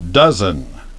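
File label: "dozen", English pronunciation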